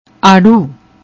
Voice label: "arru", Punjabi

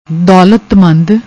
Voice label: "dolatmand", Punjabi; ਦੌਲਤਮੰਦ

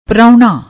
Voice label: "prohna", Punjabi

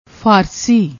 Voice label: "faarsi", Punjabi

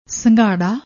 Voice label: "sangharra", Punjabi

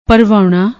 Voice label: "bharvaouna", Punjabi

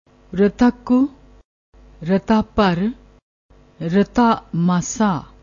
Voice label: "rata ku", Punjabi

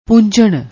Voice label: "poonjhan", Punjabi

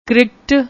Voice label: "cricket", Punjabi; ਕ੍ਰਿਕਟ

